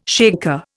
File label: "shaker", Indian